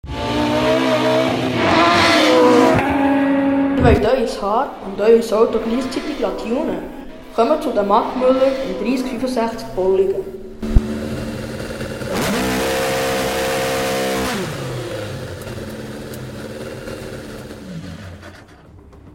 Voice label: Jingle Hairtuning; Mattmueller; Bolligen

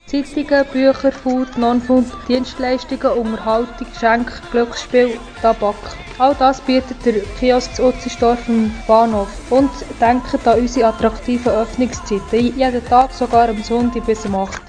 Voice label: Werbespot; Kiosk Utzenstorf

